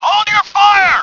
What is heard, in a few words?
"Hold your fire", English; BF1942